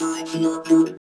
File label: VOCODER VOX; Masterbits - Tekkno Trax